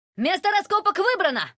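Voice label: Russian; 探奇（守望先锋2）; 终极技能; Место раскопок выбрано!; 俄语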